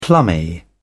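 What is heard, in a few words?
"plummy", British English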